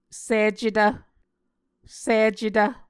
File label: "sicetv", Muscogee